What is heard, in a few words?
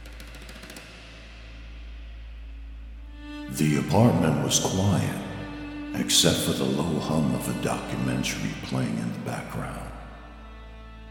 CHAPTER 1 NARRATION